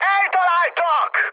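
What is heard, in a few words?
Hungarian aircraft destroyed mood high, speech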